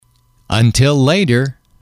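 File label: "until later", English, male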